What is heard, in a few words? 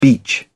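"beach", English, British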